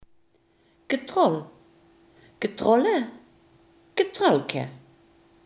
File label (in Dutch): "katrol", Limburgs